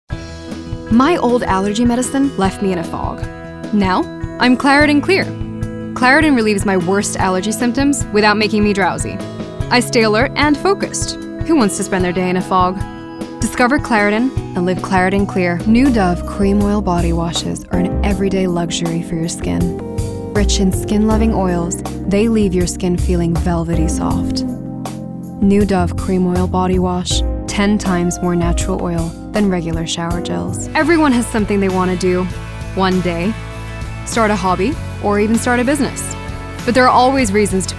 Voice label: us commercial

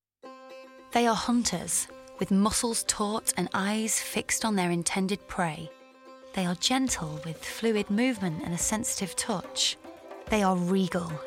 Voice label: narrative

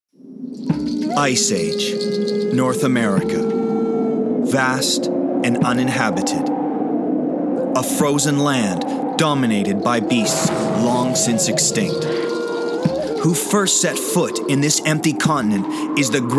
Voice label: narration

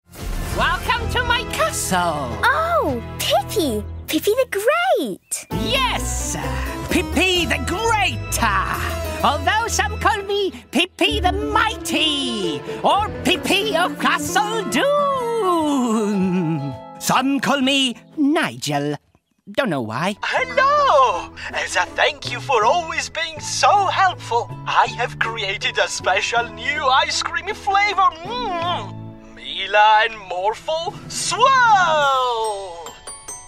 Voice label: Animation Reel

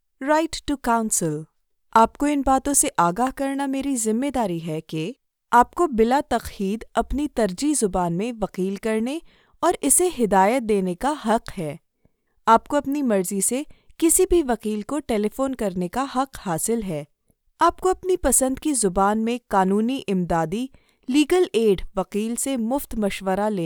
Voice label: explainer showreel urdu

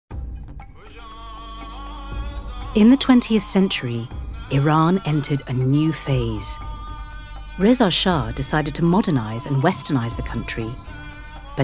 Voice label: Narration

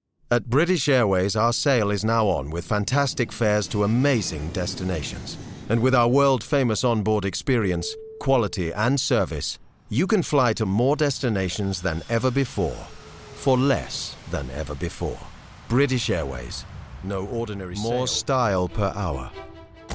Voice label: RP

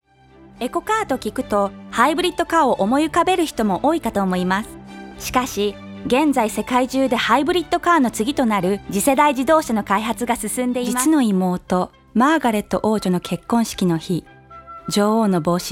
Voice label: Japanese

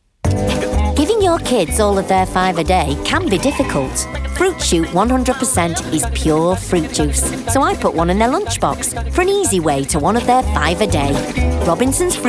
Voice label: commercial northern

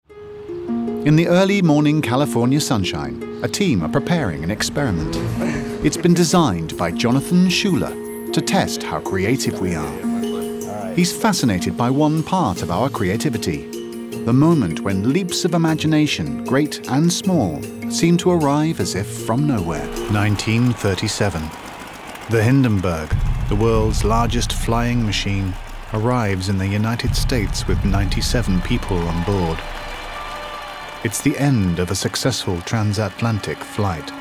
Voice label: narrative montage

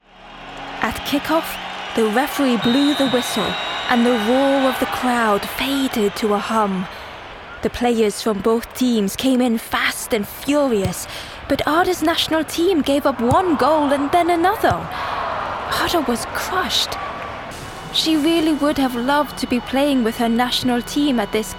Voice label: English Audiobook

